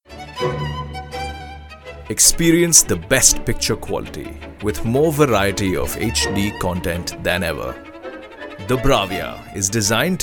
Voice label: TVC eng, English Male Voice Over, Commercial Narration Ads